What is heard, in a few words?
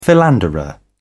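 "philanderer", British English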